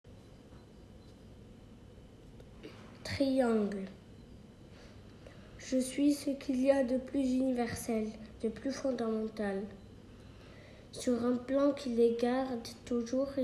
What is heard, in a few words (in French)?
Poeme voix